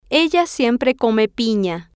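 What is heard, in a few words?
ella siempre come pina, Spanish, female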